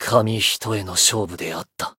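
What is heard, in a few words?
win barely, Japanese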